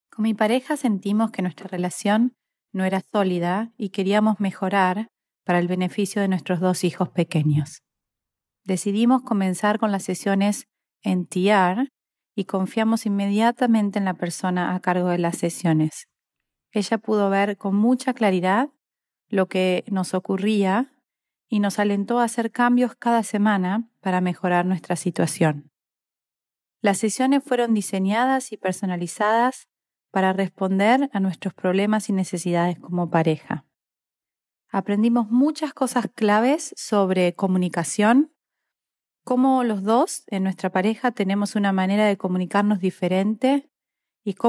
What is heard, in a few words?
Spanish quote